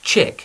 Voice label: "chick", English; domaci zvirata

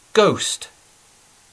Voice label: "goast", English

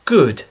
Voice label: "good", English

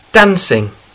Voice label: "dancing", English

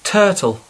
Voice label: "turtle", English; zvirata v zoo

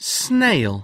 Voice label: "snail", English word; pets

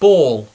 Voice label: "ball", English; hracky

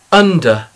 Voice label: "under", English

